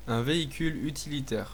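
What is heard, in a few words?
truck desc, French; vehicles; auto; tuxpaint-stamps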